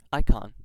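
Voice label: "icon", US English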